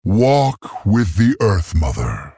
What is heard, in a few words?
male tauren well played